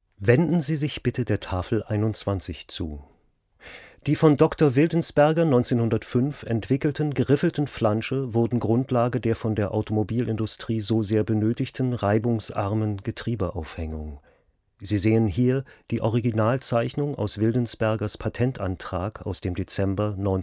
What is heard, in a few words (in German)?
Audioguide